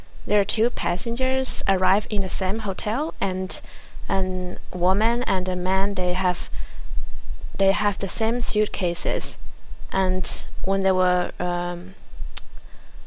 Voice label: chinese high